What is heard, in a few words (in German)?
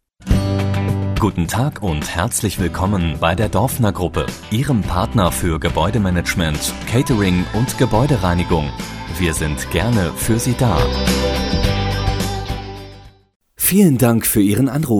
telefon